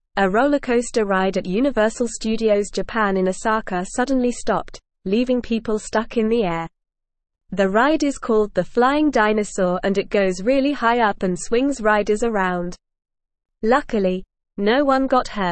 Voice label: English Upper Intermediate NORMAL Reading; English Newsroom; Rollercoaster at Universal Studios Japan stops mi